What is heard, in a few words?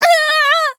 hit fr; Cookie Run